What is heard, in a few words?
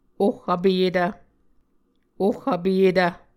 "oh vpeyetv", Muscogee